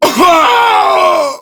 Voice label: Death Prime Grineer Male; Tau; Battle Barks